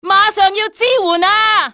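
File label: call for reinforcements, cantonese female; XBOX; enemy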